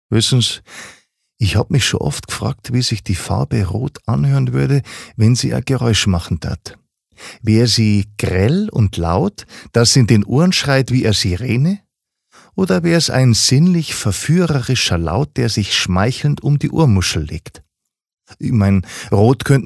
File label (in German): Dialekt Bayerisch